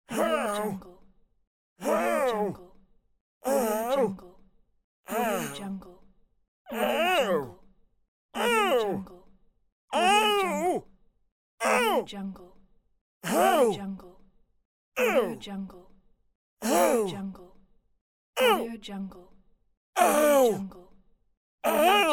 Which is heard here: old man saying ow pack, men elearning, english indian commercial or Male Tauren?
old man saying ow pack